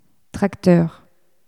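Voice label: "tracteur", French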